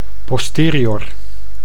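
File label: "posterior", Dutch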